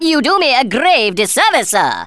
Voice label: i'll get you, Speech Thespian; Worms Armageddon